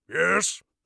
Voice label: yes command, orc voice; Orc Voice Pack

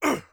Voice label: hit, orc voice; Orc Voice Pack

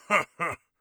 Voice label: amused, orc voice; Orc Voice Pack

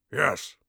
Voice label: yes, orc voice; Orc Voice Pack

